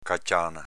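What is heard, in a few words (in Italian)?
"caciàna" Veneto dialect; s.f. pacca, sberla, ceffone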